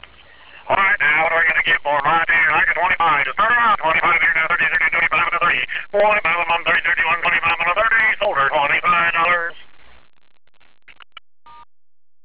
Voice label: auction